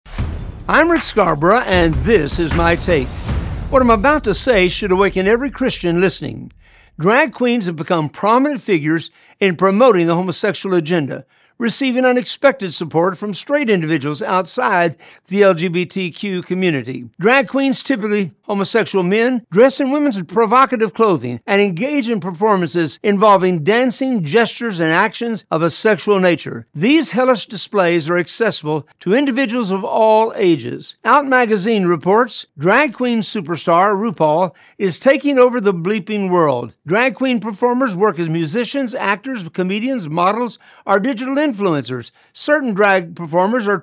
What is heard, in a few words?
Radio Spot; Drag Queens